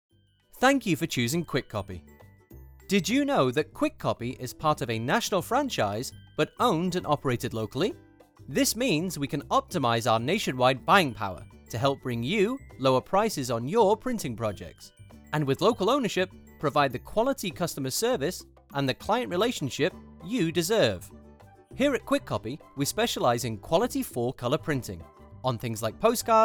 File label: MUSIC British Accent; Kwik Kopy